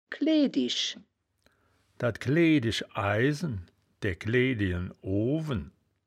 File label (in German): "gleedisch", wittlich